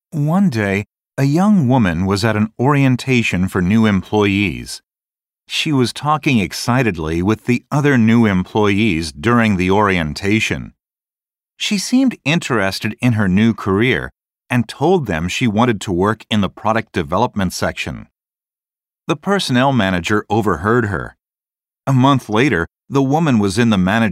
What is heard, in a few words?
Speaking Model Narration